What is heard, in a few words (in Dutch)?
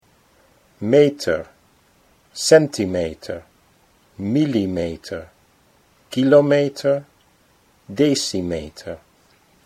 meter centimeter, Dutch